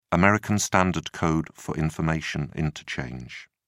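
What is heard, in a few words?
"american standard code for information interchange", English, British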